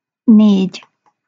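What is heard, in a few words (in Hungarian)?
"négy", Hungarian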